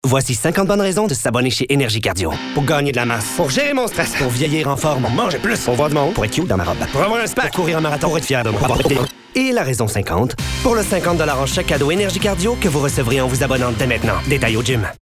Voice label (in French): Promo; Energie cardio